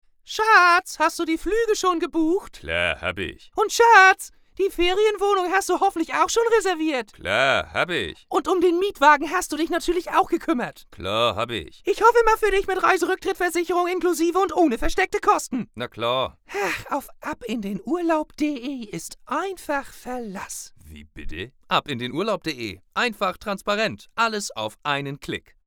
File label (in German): german radio commercial